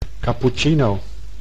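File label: "capuccino", Dutch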